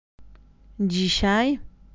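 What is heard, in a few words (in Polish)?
"dzisiaj", Polish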